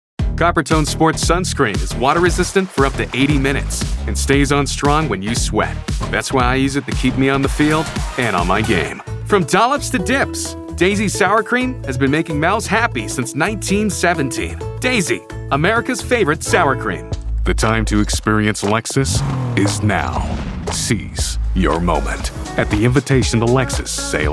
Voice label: Commercial